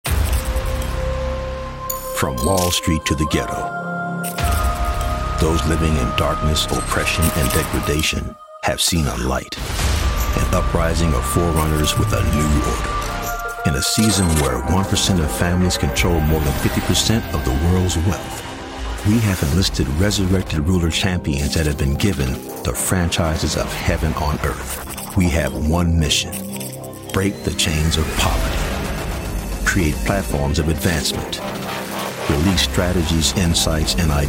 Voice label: video promo; BILLIONAIRE BELIEVER'S SUMMIT 2015

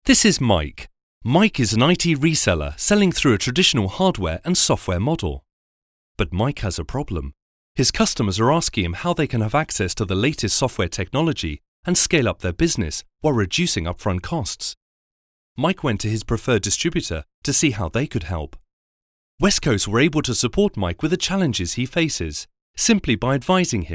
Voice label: Corporate Friendly

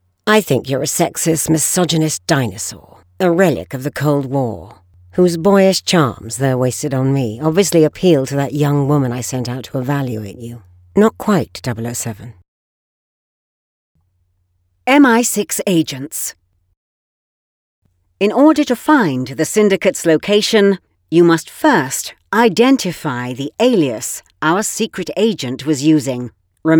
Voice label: Mature; Judy Dench

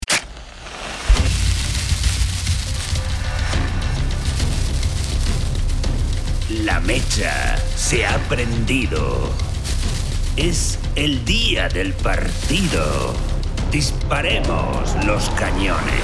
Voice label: Spot TV Latam Accent; Bucs

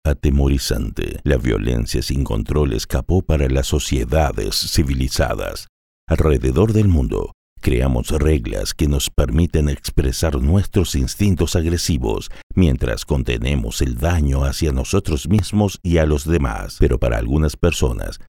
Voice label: Television

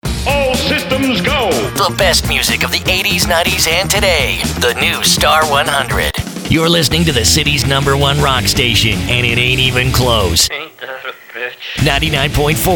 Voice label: Imaging

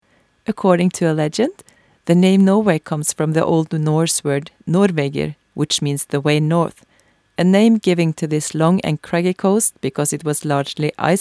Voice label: english

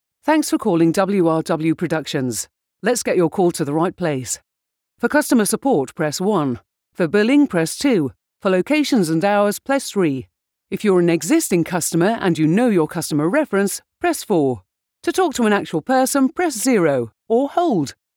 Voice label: IVR peppy RP

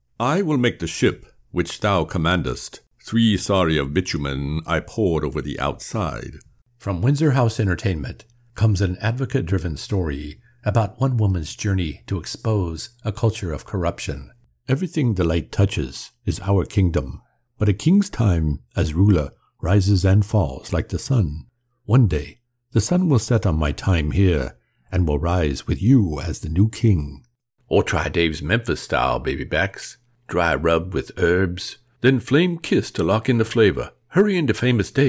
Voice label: voice characterizations

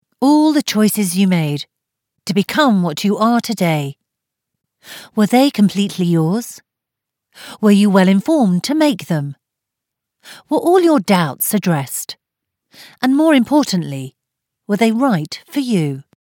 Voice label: voiceover for an explainer video; 230 words

